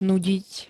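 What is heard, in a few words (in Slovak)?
"nudiť", slovenské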